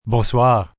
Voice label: Goodnight, male, French 'Bonsoir' (goodnight), (human voice; Goodbyes, male, foreign